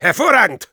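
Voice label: positive vocalization, German; Hervorragend!; Spy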